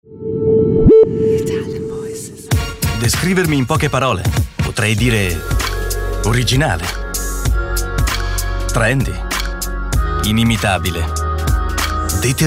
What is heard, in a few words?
ITALIAN VOICES Self confidente Cool, male voices